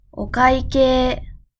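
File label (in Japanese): "ご会計", Japanese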